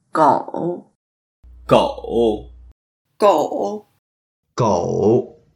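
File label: "gou3", Mandarin Chinese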